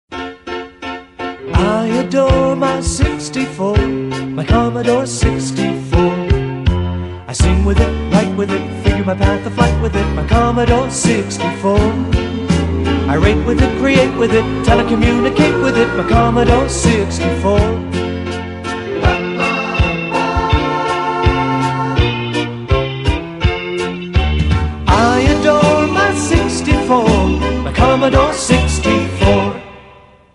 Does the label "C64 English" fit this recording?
Yes